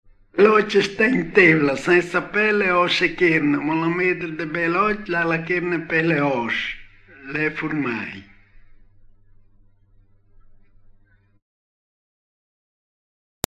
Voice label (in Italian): indovinello, romagna